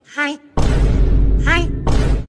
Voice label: hi, vine boom double hi

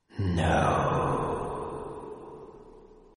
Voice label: noooooo mysterious

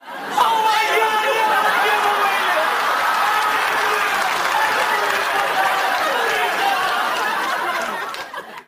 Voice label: screams oh god no

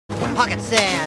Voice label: pocket sand voice line

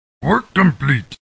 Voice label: orc peon grunt, work complete; warcraft ii

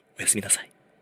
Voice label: oyasumi whisper; kagami hayato

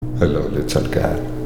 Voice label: hello little girl african

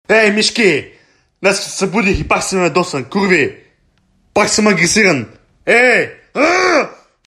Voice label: morning rage

